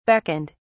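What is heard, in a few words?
"beckoned", British English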